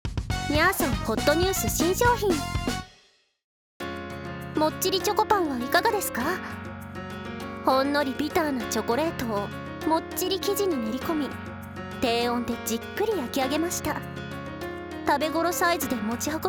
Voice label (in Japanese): 店内放送 もっちりチョコパン編; にゃ～そん店内放送